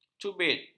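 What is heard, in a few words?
"cubit", Malay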